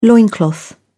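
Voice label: "loincloth", British English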